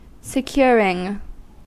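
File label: "securing", American English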